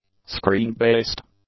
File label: "screen-based", English; adjectives